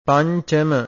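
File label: "pancama", Pali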